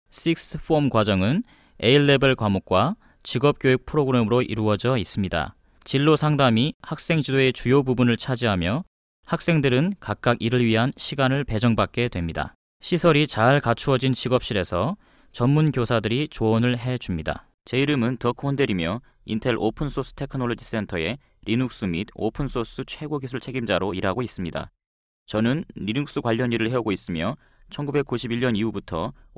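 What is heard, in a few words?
Korean General; GoLocalise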